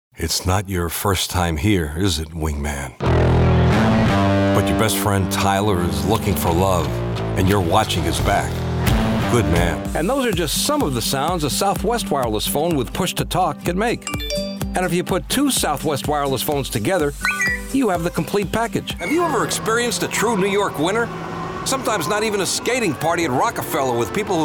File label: Commercial